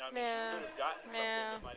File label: weird nyeah nyeah